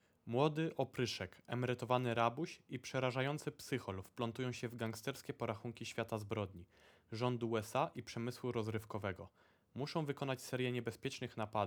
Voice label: logitech g522 lightspeed mikrofon test